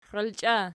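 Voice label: "xialchia" nominative, Archi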